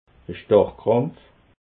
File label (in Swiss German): "starrkrampf", alsace